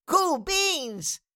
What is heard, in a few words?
Cartoon little child voice, "cool beans"